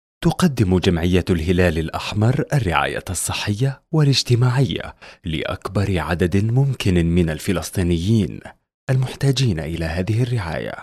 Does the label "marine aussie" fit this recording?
No